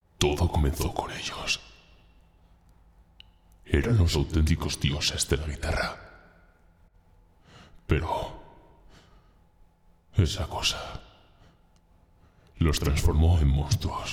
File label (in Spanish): Narración Intro Comic; Metal Tales Fury of the Guitar Gods